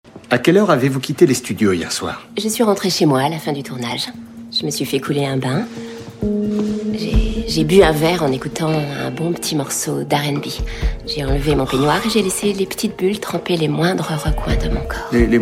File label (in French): Doublage Medley